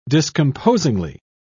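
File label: "discomposingly", English, British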